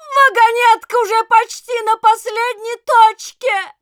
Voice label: announcer cart attacker final warning, ru